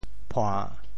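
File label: "phua~3", Teochew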